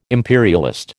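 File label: "imperialist", American English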